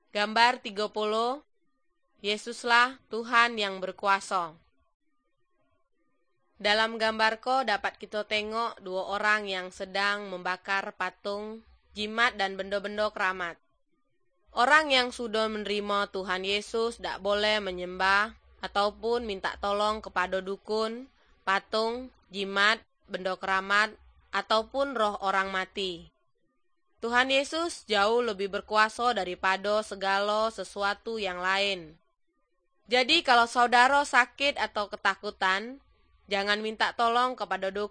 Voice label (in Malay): good news malay jambi